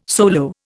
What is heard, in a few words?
"solo", Indian